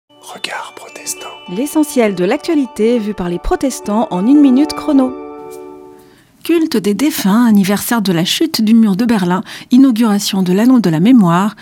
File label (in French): Spot radio; Regards protestants